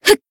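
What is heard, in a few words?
Voice attack, Japanese; suzette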